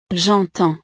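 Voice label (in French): "j entends", French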